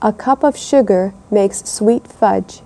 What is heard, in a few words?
woman